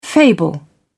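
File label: "fable", British English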